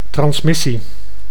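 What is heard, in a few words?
"transmissie", Dutch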